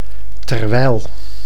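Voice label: "terwijl", Dutch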